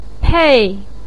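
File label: "pei4", Mandarin pinyin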